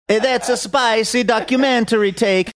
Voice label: saying thats a spicy documentary take faux italian